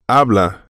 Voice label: "habla", Spanish